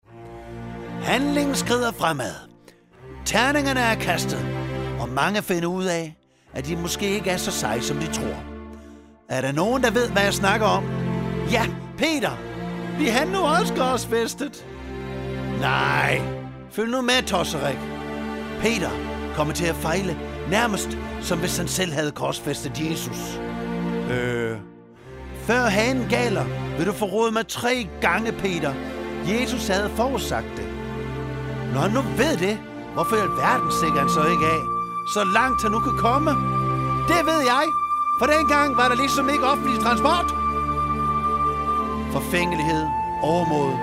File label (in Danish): Fornaegtelsen drama med speak